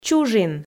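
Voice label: "чужин", Komi, female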